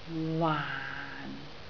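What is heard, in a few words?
"waan4", Cantonese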